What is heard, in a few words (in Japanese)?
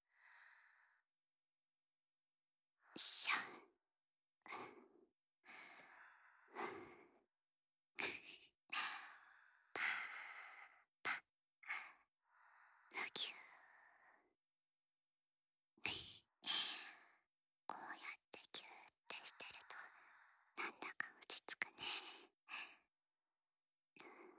添い寝; ねむと一緒に添い寝